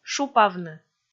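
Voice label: "шупавны", Komi, female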